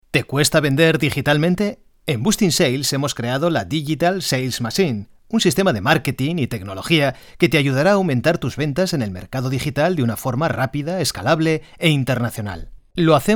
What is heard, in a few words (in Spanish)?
comercial